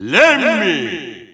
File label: Russian Announcer; SSBU